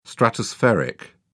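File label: "stratospheric", English, British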